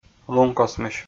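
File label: "лункосмысь", Komi, male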